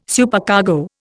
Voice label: "Supercargo", Indian